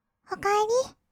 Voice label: 「おかえり」, kawaii voice; 挨拶

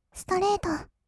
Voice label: ストレート, kawaii voice; ASMR; 色々なワンショット; 1200 kawaii voice!!

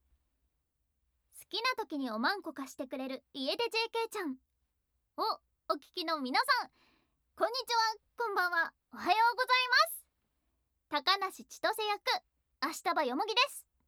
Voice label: フリートーク

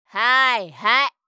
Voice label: "はーいはい", 相づち・反応系【ネガティブ】; ASMR

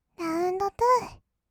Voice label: "ラウンドツー", kawaii voice; ASMR; 1200 kawaii voice!!; 音ゲー

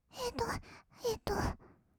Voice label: えっとえっと, kawaii voice; 1200 kawaii voice!!; 色々なワンショット

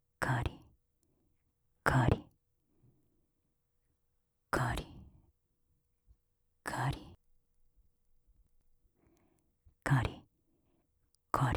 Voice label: 上官カリカリゆっくり, 左耳; オノマトペ

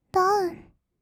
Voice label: "ダウン", kawaii voice; 音ゲー

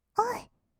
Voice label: 「おう」, kawaii voice; 1200 kawaii voice!!; 色々なワンショット

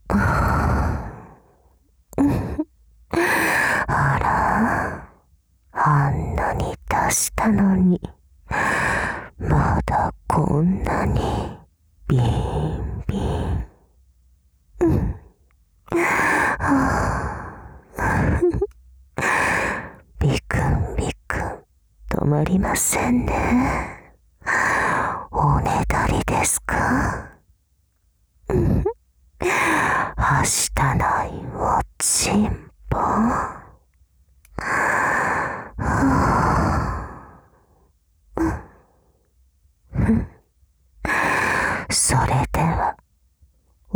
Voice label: 右 語り掛け, ASMR; マッサージフェラでお漏らし射精

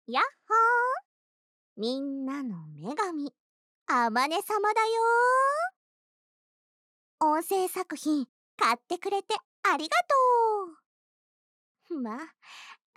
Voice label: お礼ボイス

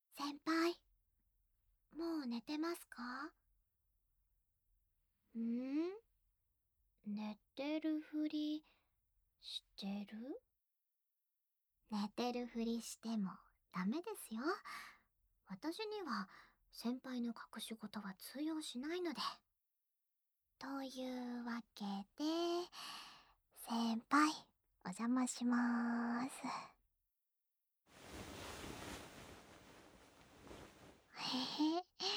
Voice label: 甘やかし添い寝; ASMR

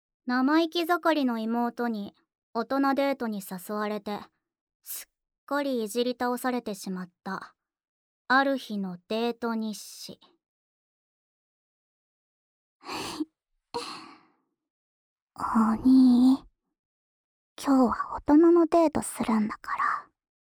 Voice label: タイトルコール, ASMR

